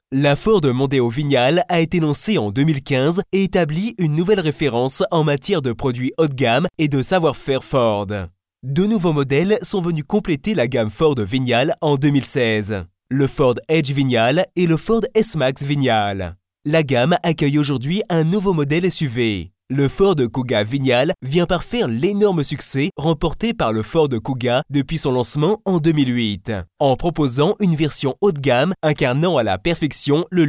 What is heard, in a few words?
french male corporate